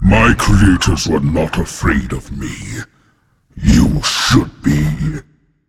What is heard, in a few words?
taunt, voice; smite; voicepack; tyr